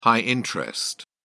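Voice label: "high-interest", English, British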